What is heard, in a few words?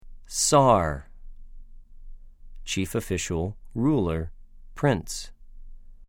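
"שַׂר", Hebrew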